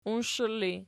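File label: "uinshela" ergative, Archi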